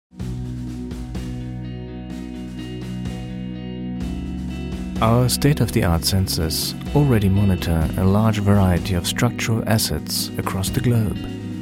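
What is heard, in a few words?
German Accented English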